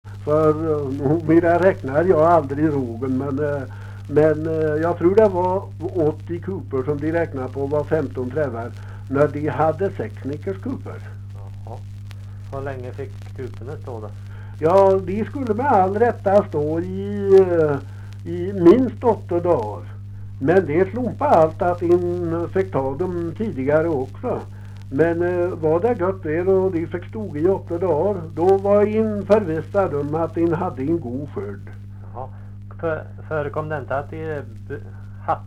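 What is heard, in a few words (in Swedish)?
Lerdal Dalsland, dialekter, Svenska sagor; Folkminnen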